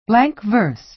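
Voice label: "blank verse", American English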